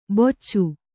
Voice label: "ಬೊಚ್ಚು", kannada